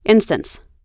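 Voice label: "instance", English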